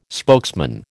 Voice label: "spokesman", American

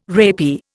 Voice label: "rapier", Indian

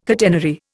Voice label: "catenary", Indian

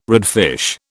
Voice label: "redfish", British

